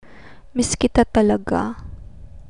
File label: miss kita talaga, Tagalog